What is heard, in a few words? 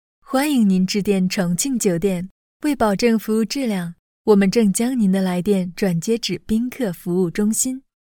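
Chinese Mandarin, female, IVR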